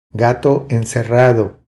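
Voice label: "Gato encerrado", Spanish; DELE C2 vocabulary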